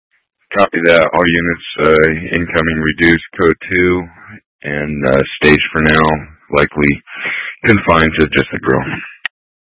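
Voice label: Incident Tac 2, Scanner audio recording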